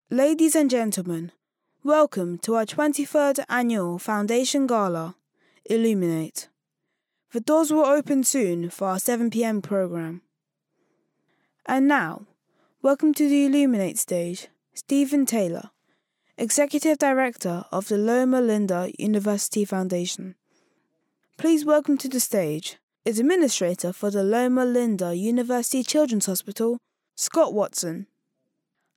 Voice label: boy british voice over upbeat; english british